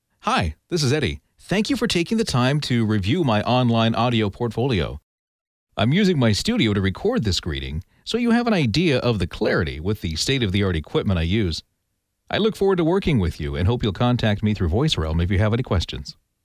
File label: studio